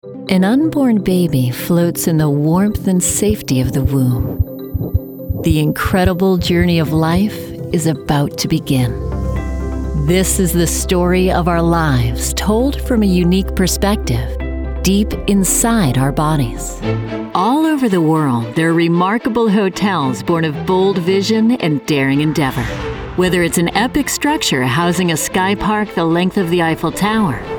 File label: women narration; Inspiring, natural, and inviting.